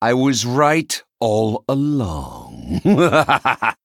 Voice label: Male Blood Elf; card sounds